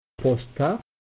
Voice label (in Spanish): "posta", amharico